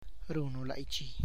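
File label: you cook, Tlacochahuaya Zapotec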